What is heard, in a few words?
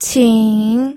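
"qing", Mandarin Chinese, third tone; pinyin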